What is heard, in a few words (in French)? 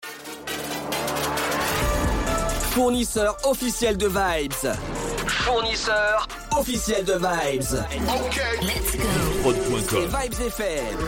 Jingle Fournisseur de Vibes; Vybz Fm